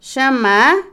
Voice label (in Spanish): Otomí, mujer; largo; INALI